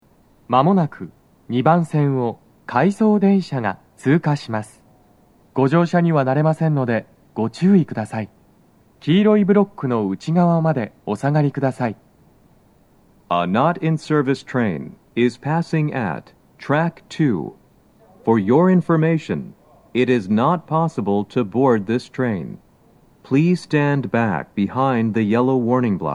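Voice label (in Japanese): 接近放送, 男声, 回送電車の通過, コロナ啓発放送が被っています; Panasonic天井型での収録です。; 放送は「回送電車の通過」です。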